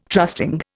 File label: "trusting", Indian